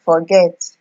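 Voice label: "forget", Nigerian Pidgin, female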